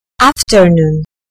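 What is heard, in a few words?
"afternoon", British English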